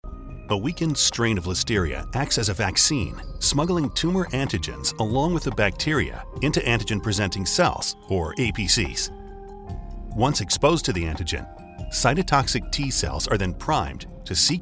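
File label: US English, E-Learning; GoLocalise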